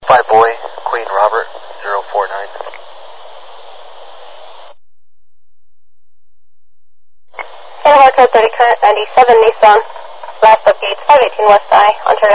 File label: Police Chatter